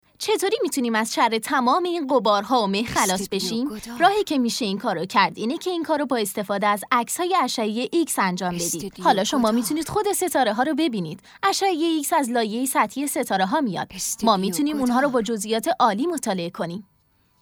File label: dub